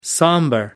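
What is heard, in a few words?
"somber", British English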